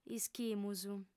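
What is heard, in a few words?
"ischimus", Sardinian, female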